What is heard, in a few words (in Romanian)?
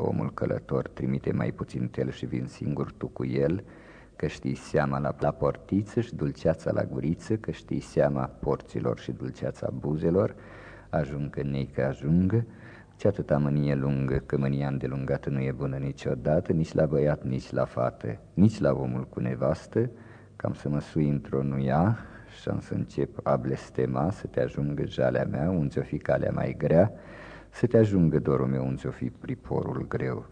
Foaie verde fir mohor recitat; Fonoteca Arhivei de Folclor Vasile Adascalitei - CJCPCT Vaslui; jocuri si cantece batranesti